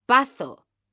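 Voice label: "pazo", Spanish; mekanta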